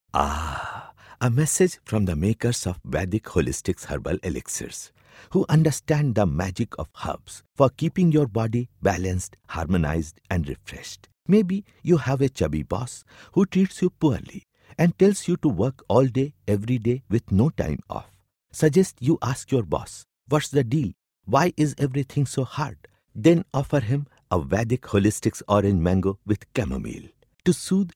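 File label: Hindi Accented English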